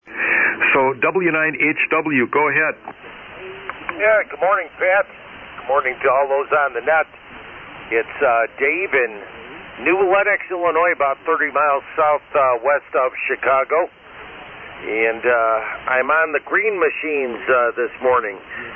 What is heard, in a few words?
Heathkit SB-102 & SB-200 Amp; New Lenox, IL.